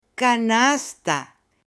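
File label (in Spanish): "CANASTA", Spanish, female; AppLenguaje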